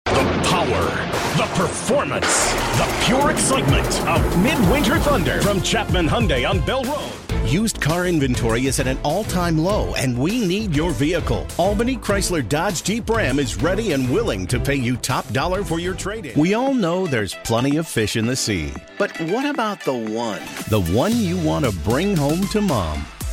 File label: Car Dealer